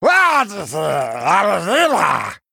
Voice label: Male Murloc Start

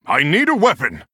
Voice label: Male Human ERROR NEED WEAPON

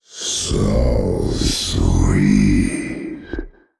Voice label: Male Old God Attack

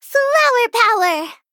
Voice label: Female Gnome Play

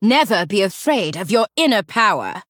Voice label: Female Human Play

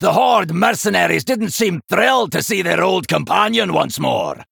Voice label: Male Dwarf Boss Reveal

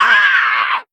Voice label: Male Arakkoa Attack

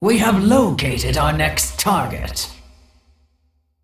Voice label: Female Dragon

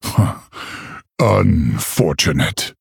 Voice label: Male Orc Oops

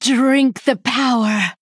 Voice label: Female Blood Elf

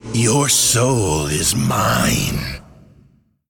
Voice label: Male Demon Attack

